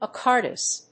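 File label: "acardius", English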